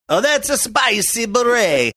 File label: saying thats a spicy beret faux italian